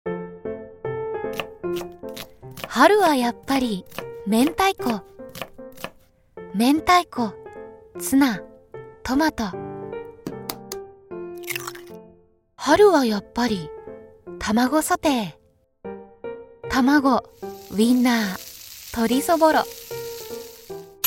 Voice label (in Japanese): ナチュラル、軽さ、朗らか, CM; キューピッドパスタソース